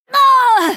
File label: hit, en; cookie run